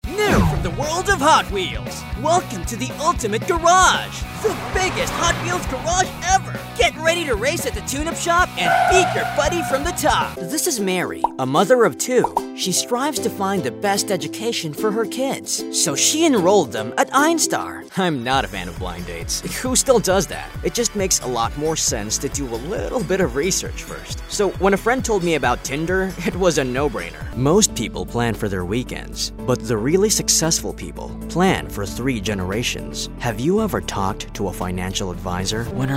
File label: English (Commercial Reel)